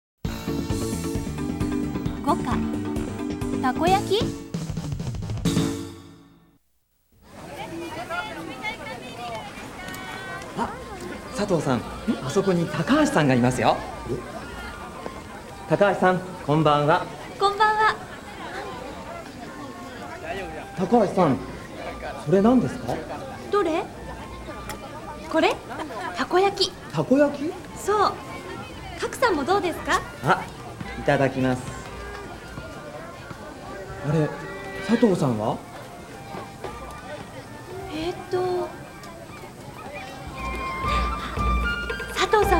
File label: Pronunciation Kaiwa Takoyaki